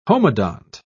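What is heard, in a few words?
"homodont", British English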